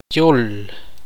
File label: "Idioma", Tektiteko